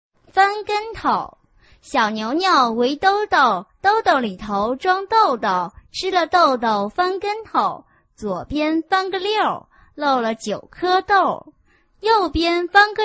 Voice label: chinese tongue twister